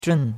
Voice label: "zhun4", chinois; Cours-de-Chinois

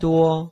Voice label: "duo1", chinois

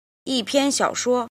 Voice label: "jin", Chinese

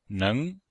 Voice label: "neng2", Chinese

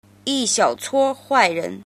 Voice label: "jin", Chinese

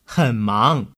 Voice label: Mandarin Conversation

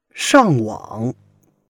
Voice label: "shang4 wang3", Chinese

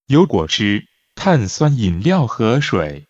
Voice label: Mandarin Conversation

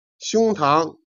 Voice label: "jian", Chinese

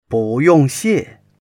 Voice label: "bu4 yong4 xie4", Chinese

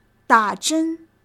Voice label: "da3 zhen1", Chinese

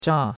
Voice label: "zha4", chinois; Cours-de-Chinois

